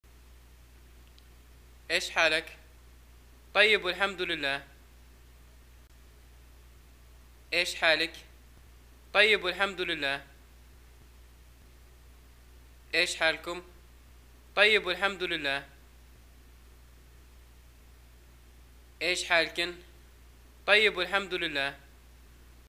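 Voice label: greeting how are you, Libyan arabic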